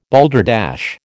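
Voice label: "balderdash", American English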